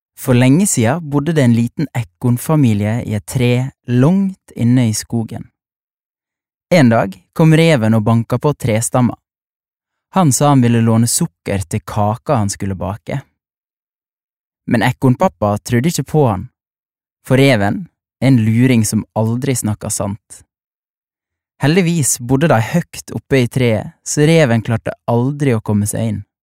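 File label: Norwegian fortelling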